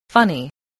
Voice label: "funny", voice, English